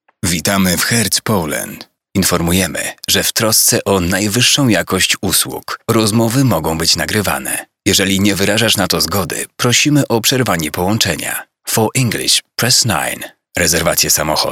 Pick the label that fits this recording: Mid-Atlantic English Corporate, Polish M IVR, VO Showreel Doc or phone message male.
Polish M IVR